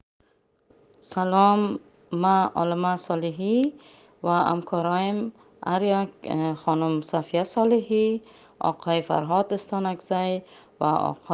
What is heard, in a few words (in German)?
persisch